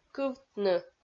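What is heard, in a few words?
"кывтны" Komi, female; kpv audio dict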